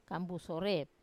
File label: "kambu sore", river mouth, wamesa